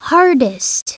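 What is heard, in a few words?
"hardest", English; WordBank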